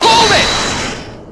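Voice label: "hold it", male; Apollo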